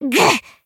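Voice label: Voice damaged Japanese; morgana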